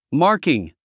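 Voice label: "marking", English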